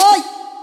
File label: Vocal Chop "Oi"; One Shots; Ableton Live 12 Lite; Core Library